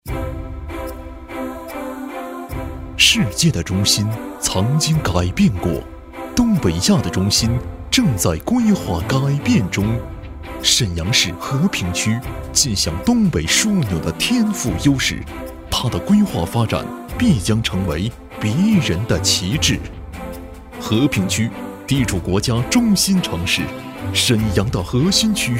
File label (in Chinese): 男 专题 城市宣传; 沈阳市和平区; 沈阳市和平区城市宣传